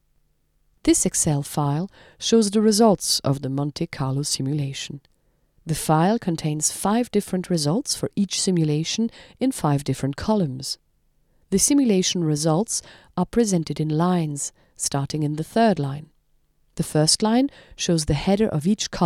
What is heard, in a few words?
solar e learning; giz